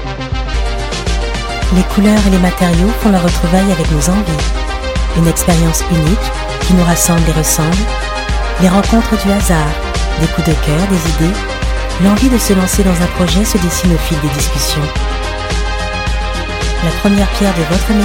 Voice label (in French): présentation chaleureux incitatif type découverte évasion; HABITAT JARDIN 2018; Pour SALON de l'HABITAT